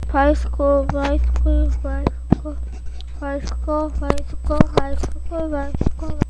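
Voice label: "bicycle", spoken word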